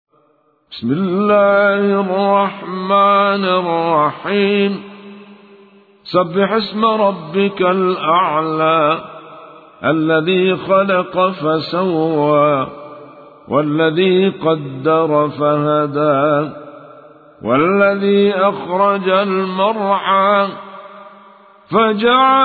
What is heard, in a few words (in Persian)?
ترتیل سوره اعلی; محمد رشاد الشریف